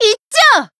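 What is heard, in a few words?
Voice finish, Japanese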